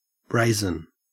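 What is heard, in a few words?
"brazen", Australian English; pronunciations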